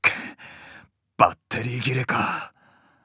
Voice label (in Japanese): バッテリー切れ, PCシステムボイス